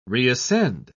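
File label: "reascend", British English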